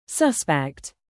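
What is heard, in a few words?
"live", verb, British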